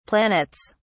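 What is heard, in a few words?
"planets", British English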